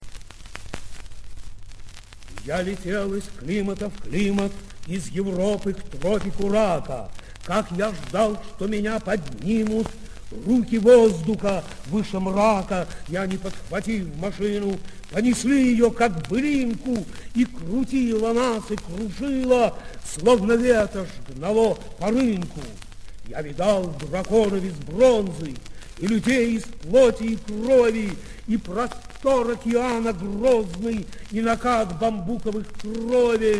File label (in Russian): Возвращение (стихчитавтор)